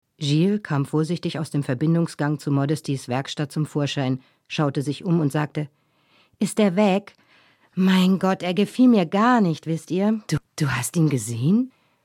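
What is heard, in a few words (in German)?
Erzählung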